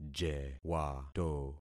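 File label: "jewato", concatenated syllables; Affixing experiment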